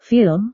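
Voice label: "film", Indonesian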